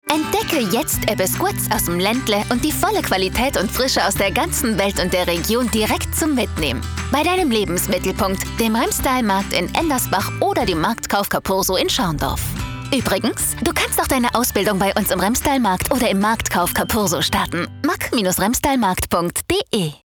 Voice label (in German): Spot